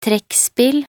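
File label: "trekkspill", Norwegian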